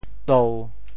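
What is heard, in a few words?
"tou1", Teochew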